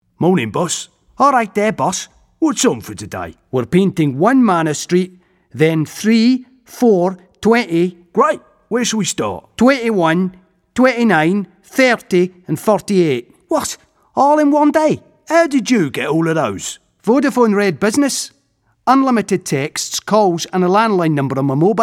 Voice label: character

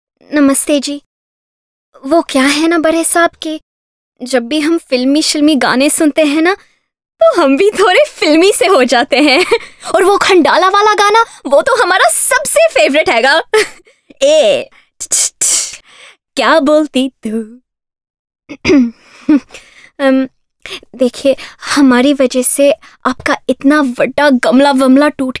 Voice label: HINDI Read

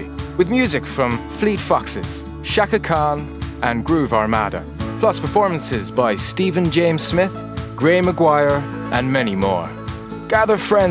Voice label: VO Reel, Irish Festival

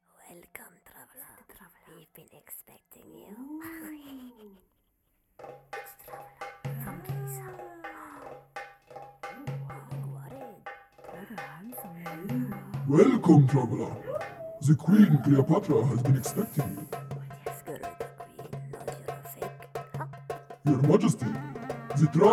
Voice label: Videogame Voice

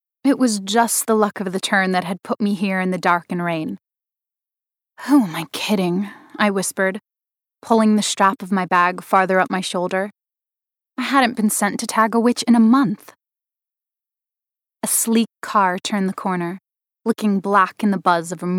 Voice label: VO Reel Audiobook

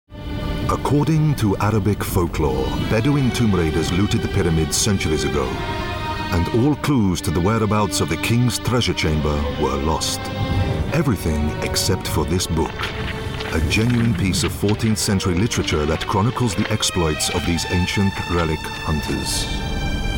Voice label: middleeastern doc

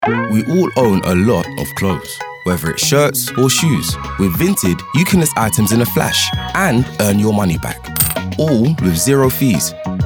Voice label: Ad; Vinted

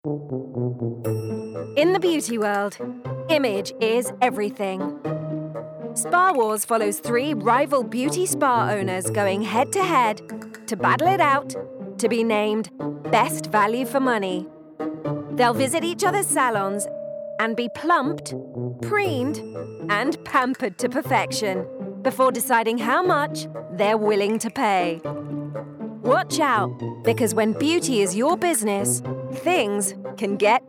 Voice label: VO Reel; Spa Wars

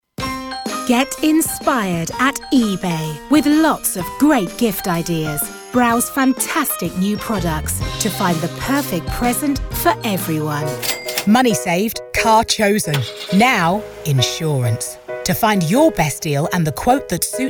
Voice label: Commercial Voice reel

